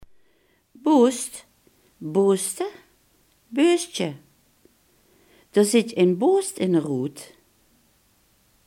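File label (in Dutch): "barst" Limburgish dialect word; barst, boost (booste, beustje)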